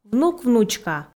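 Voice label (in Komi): "внук-внучка", Komi, female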